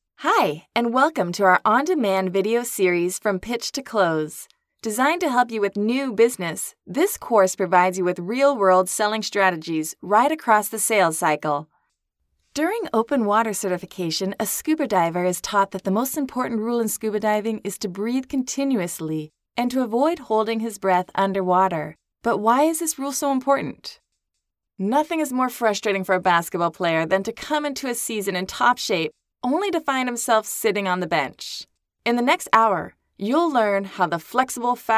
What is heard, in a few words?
English American